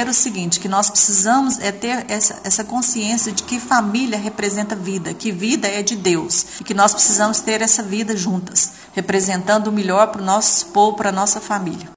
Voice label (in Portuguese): Msg Mulher